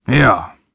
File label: Warrior emotion agree